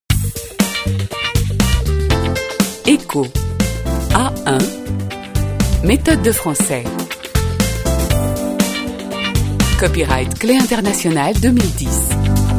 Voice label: French